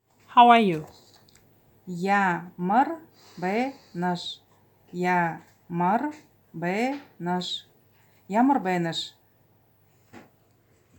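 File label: How are you, Buryat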